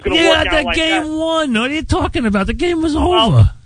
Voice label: Voice Crack; WFAN